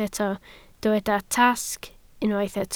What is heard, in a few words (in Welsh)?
"tasg", Welsh